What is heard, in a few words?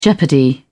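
"jeopardy", British English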